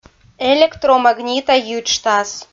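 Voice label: "электромагнита йиджтас" Komi, female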